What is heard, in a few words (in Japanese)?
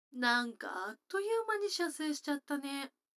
女の子 台詞